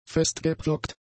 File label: "festgepflockt", german; adjectives